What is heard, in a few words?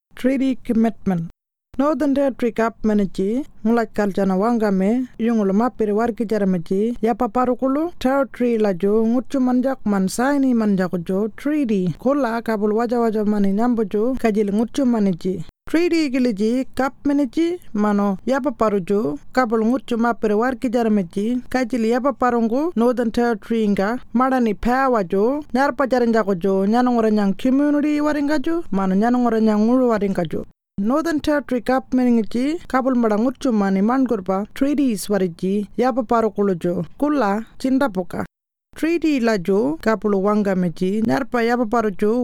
Warlpiri; Treaty Commitment